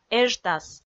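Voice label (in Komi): "эжтас", Komi, female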